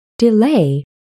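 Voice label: "delay", English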